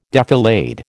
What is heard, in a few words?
"defilade", American